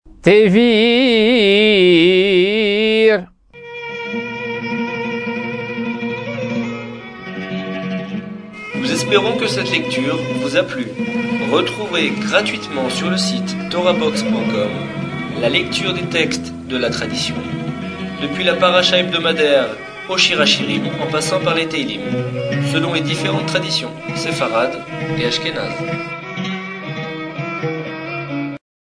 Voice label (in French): apprentissage taamim selon le rite constantinois